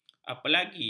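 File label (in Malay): "apalagi", Malay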